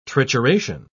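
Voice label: "trituration", British English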